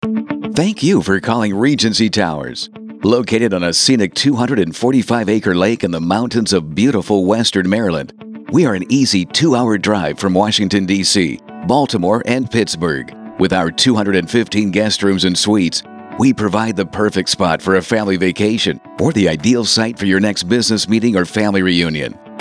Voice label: American Male Voice, On Hold Messaging, Accent: North American English, Tone / Style: Smooth Delivery; Polished Sound